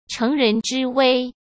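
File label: "乘人之危", Mandarin Chinese; chinese-flashcards; hsk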